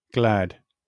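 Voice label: "glad", British English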